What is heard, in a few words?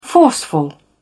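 "forceful", en, uk British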